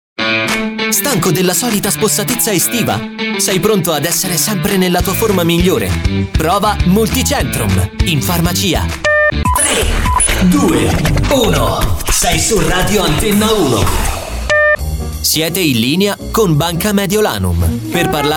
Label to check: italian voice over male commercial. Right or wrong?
Right